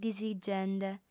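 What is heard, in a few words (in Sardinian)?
"disigende", female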